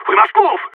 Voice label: radio lets go; Counter Strike; CS:GO; phoenix

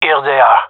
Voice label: sees enemy, gendarmerie male; vo

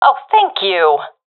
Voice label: thankful, professional fem; vo; Counter Strike Model Pack; CS:GO

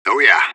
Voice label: radio bot reponse positive; fbihrt

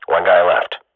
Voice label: radio bot kill count; Counter Strike Model Pack; CS:GO

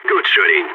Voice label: nice shot, balkan; vo

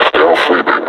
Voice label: reconnoiter search, vo combine suppressor; Half Life Alyx

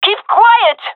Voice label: request quiet, gendermerie fem; vo

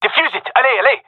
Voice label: cover defuse, gendarmerie male; vo

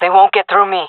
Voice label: guarding escape zone, jungle fem; player vo jungle fem

